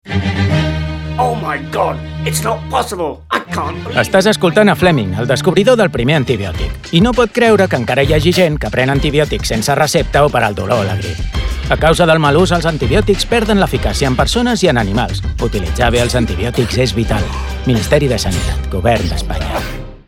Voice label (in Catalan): CUÑA Catalán; cuña de radio; Usar bien los antibióticos